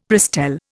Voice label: "Prestel", Indian; Dictionary

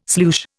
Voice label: "sloosh", Indian

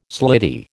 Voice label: "slitty", American

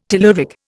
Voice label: "telluric", Indian

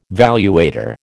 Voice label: "valuator", American

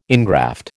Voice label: "ingraft", American

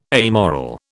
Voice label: "amoral", British

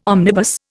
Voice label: "omnibus", Indian

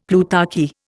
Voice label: "plutarchy", Indian; Dictionary

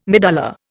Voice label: "medulla", Indian

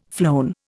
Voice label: "flown", Indian

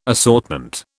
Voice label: "assortment", British

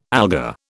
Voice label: "alga", British

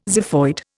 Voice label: "xiphoid", Indian

